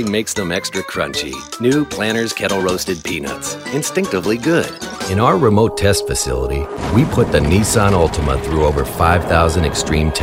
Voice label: Commercial